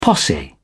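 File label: "posse", British English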